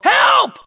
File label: Team Help, Female; T2 Female 04